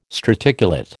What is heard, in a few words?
"straticulate", American